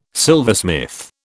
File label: "silversmith", British